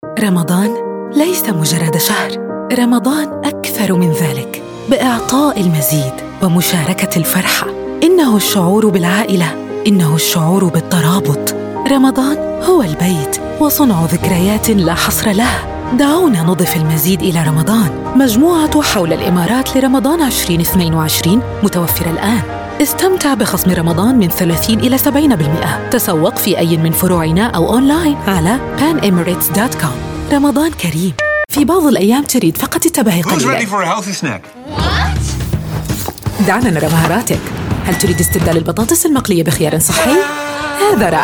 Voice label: arabic voice over female narrator